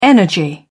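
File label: "energy", British English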